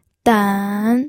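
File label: "den", pinyin, third tone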